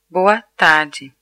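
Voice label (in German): "guten abend", German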